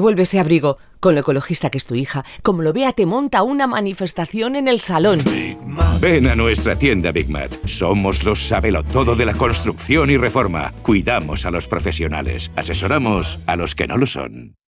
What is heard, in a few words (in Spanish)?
Cuña Estufa Pellets ES; BIG MAT SOCIOS CASTELLANO